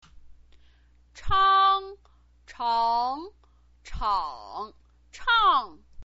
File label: "chang", chinese pinyin